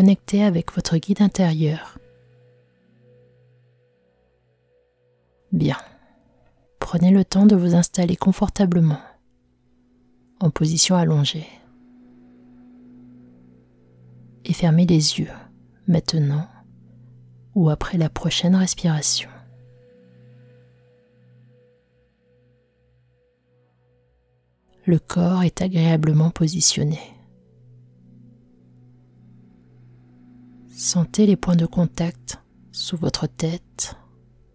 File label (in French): Meditation pour dormir; contactez votre guide interieur